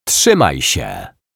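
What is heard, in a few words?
"Trzymaj sie", Polish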